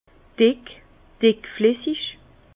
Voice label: "dick", Bas Rhin; vin; épais

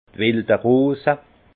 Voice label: "welderose", Alsace; vin; églantine

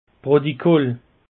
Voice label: "protikol", alsace; peche

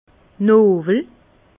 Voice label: "nowel", Bas Rhin; vin

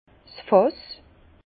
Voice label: "fass", Bas Rhin

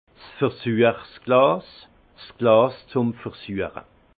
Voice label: "versuechs glas", alsace; vin; verre de dégustation

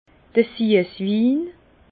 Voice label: "suesswin", Bas Rhin; vin; vin doux doucereux

